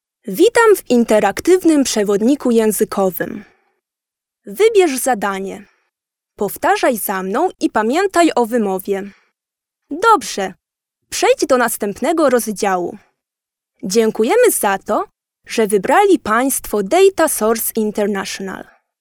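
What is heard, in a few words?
Polish female corporate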